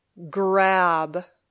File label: "grab", English